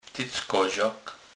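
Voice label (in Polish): "tyckozak", kurpie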